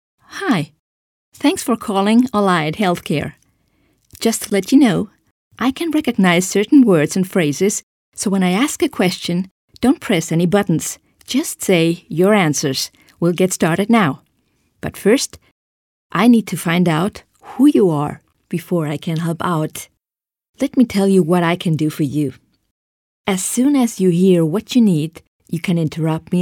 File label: en